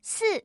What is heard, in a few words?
single, female